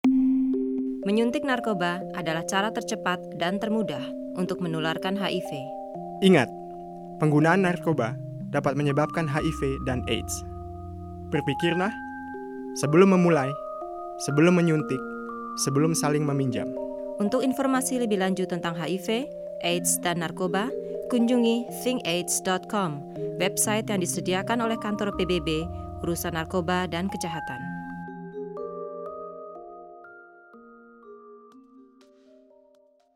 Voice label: spot indonesian music

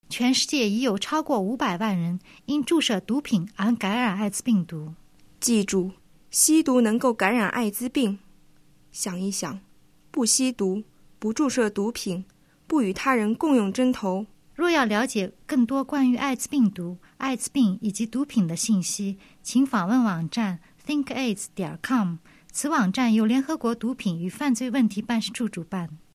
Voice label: spot chinese

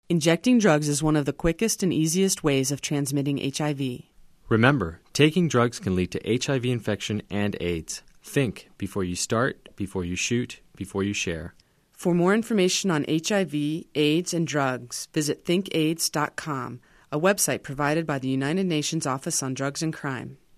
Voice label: spot usa

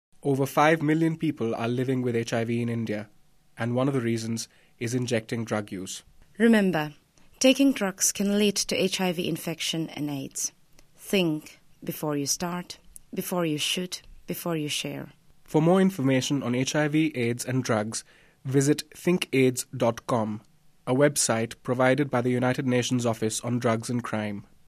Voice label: spot indian eng

